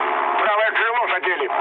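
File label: right wing, mood low, Russian; War Thunder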